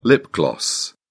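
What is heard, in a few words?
"lip-gloss", British English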